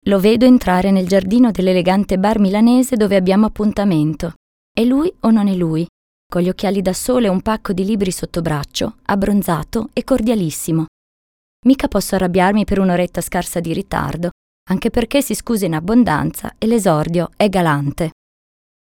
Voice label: italian female narration; voice demos

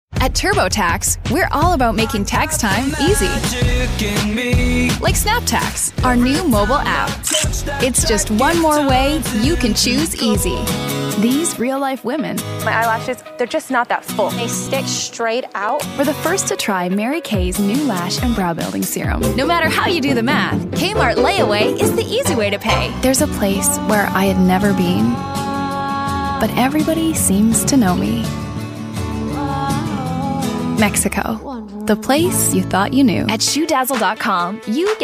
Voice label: english us f commercial, voice demos

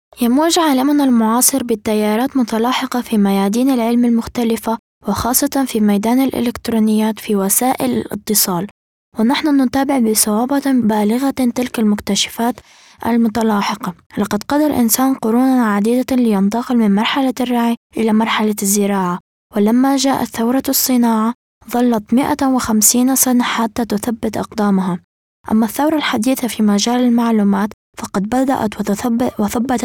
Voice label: arabic f narration; voice demos

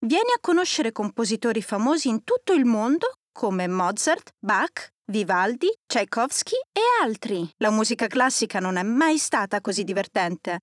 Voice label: italian f narration; voice demos

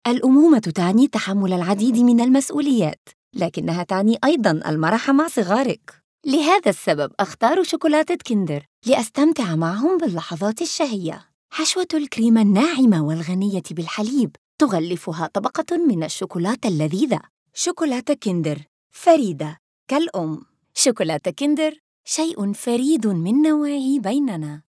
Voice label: arabic f commercial

